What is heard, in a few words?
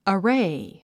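"array", English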